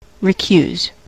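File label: "recuse", US English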